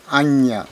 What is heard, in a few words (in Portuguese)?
"caminho", kalapalo